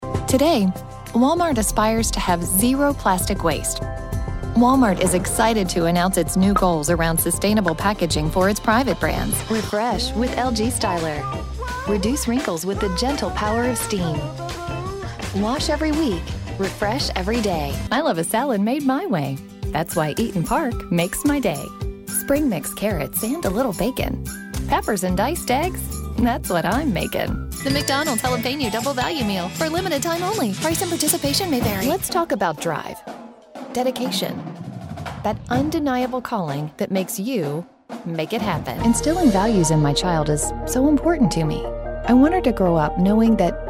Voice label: Commercial